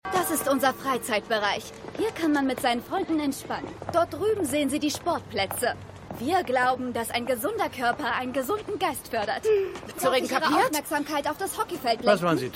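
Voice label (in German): Fremdenführerin; Gaslit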